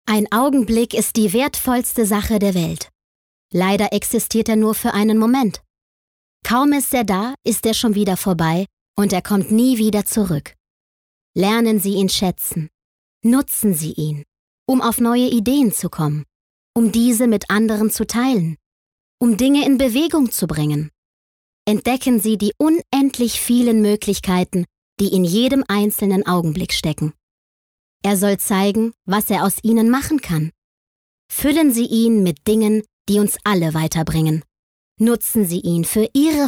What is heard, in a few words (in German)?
Schauspiel Neutral